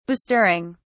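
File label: "bestirring", British English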